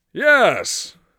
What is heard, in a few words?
yes, mage voice; Mage Voice Pack